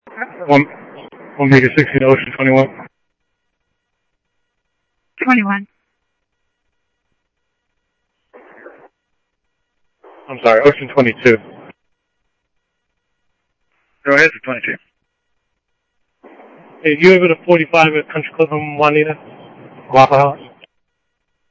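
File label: Simulcast police department, scanner audio trunk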